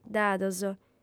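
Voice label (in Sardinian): "dados", female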